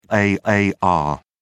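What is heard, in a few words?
"aar", British English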